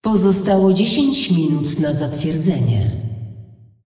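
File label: announcer female tournaments lockin 10min, hu_hu; pl pl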